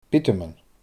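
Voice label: "biotaman", Scottish Gaelic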